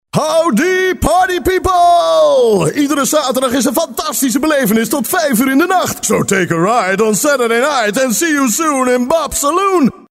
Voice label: DJ

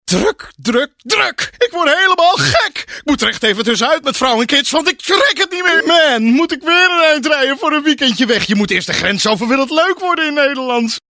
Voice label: overspannen, emoties

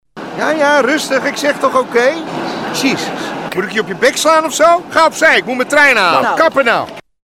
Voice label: agressief

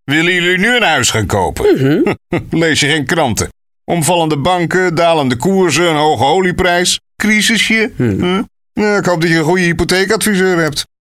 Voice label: sarcastisch

